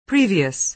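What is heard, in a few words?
"previous", American English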